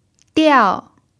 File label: "diao4", chinese pinyin